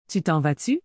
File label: "Tu t'en vas-tu ?", Québécois French